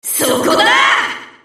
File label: Enemy Voice Remodeled Wartime Cargo Princess Damaged Attack